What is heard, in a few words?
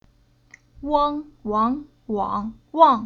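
"Wang", Chinese syllable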